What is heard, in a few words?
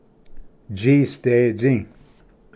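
"tsisdetsi", Cherokee; ᏥᏍᏕᏥ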